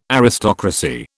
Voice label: "Aristocracy", British